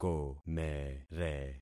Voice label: "komere", concat syllables; Affixing experiment